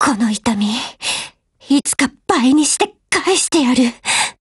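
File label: BREAK, Japanese; GF Alarm; HK416Mod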